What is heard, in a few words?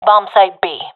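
loc b, professional female; vo; Counter Strike Model Pack; CS:GO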